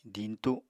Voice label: "diñtu7", Apatani